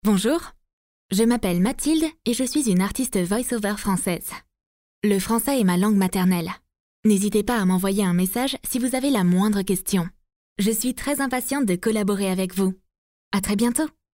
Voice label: voice sample FR